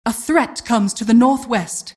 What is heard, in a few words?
announcer generic alert